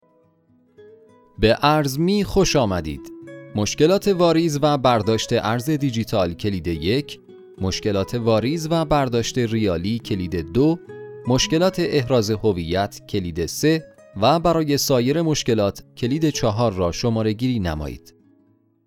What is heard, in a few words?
Narration M